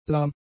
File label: "lahm", German; adjectives